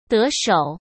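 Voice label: "得手", Mandarin Chinese; chinese flashcards; hsk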